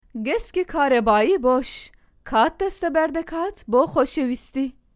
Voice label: Kurtce Kadin Soranice, seslendirme; kadin sesler

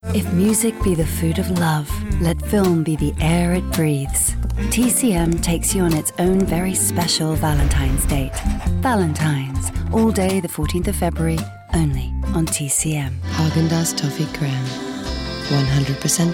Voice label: Amerikan Ingilizcesi Kadin; Amerikan İngilizcesi Seslendirme

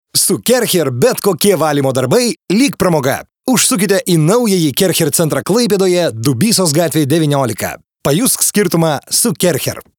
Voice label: Litvanca Erkek, seslendirme; erkek sesler

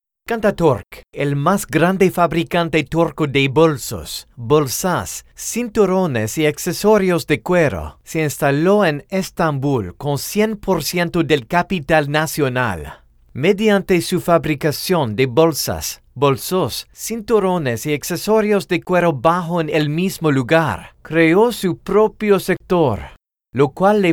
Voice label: Ispanyolca Erkek Ses; seslendirme

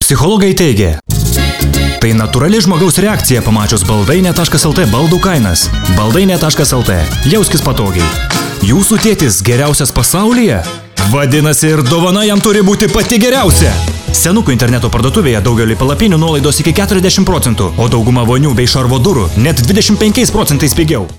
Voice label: Litvanca Erkek, seslendirme; erkek sesler

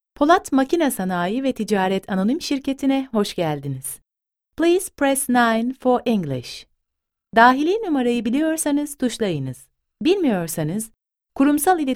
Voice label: kadin sesler, turkce seslendirme

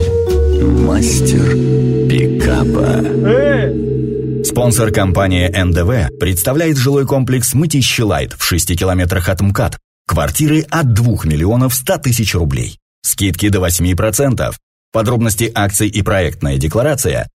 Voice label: Rusca Erkek, Seslendirme, Erkek Ses

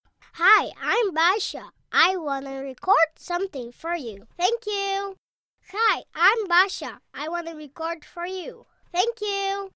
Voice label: Amerikan Ingilizcesi Kiz Cocuk, Kadın Ses

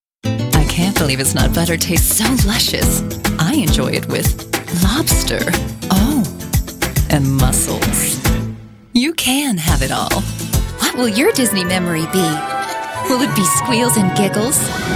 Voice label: Amerikan Ingilizcesi Kadin; Amerikan İngilizcesi Seslendirme

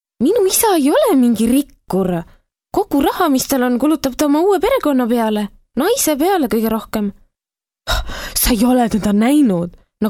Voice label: Estonca Kadin; seslendirme

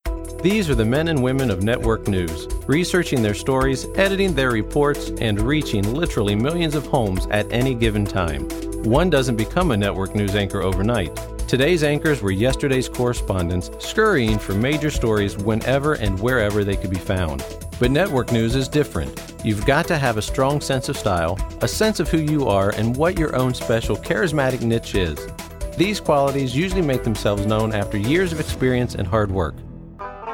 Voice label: Amerikan Ingilizcesi Erkek, Erkek Ses

